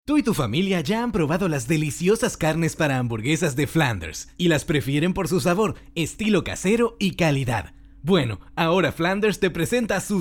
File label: Commercial Spanish